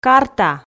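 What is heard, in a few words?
"карта", Komi, female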